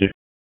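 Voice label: Raleigh Police Northeast District Tactical, p25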